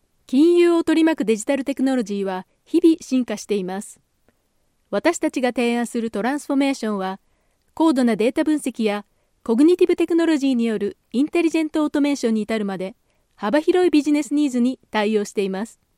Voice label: locutora japonesa japanese voiceover

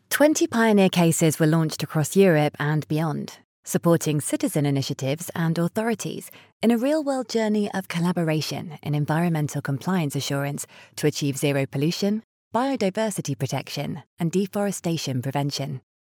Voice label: locutora inglesa english voiceover

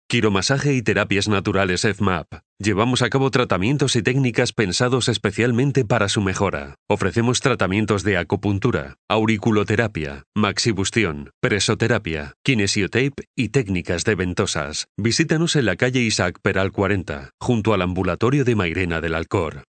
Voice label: locutor spanish voiceover; Spanish voice over agency

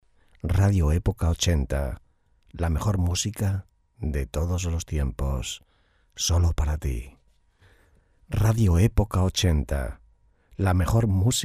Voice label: indicativo radio voiceover

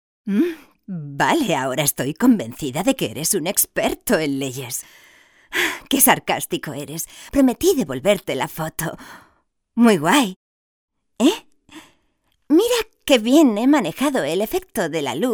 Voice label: locutora spanish voiceover

